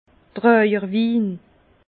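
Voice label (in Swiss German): "trajerwin", Alsace Bas Rhin; vin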